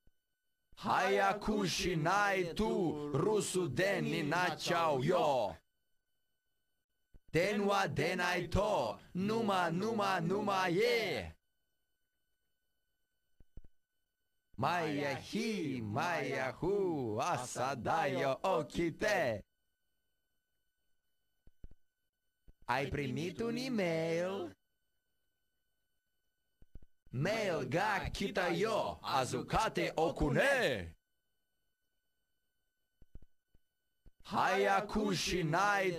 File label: phone voice